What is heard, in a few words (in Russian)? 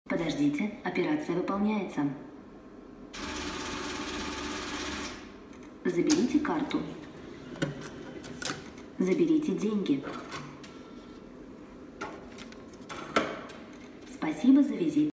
Звук банкомата где девушка сообщает о выдаче денег